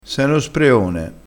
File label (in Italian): "no", dialettale crespinese